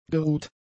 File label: "geruht", German; adjectives